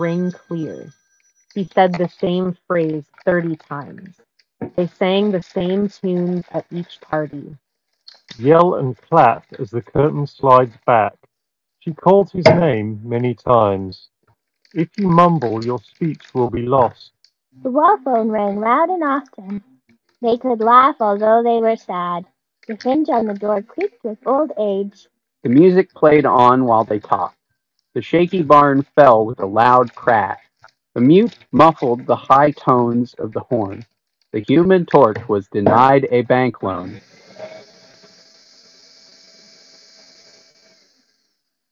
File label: HyperX Cirro Buds Pro Office microphone sample